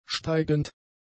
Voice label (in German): "steigend", German; adjectives